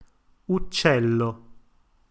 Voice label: "uccello", Italian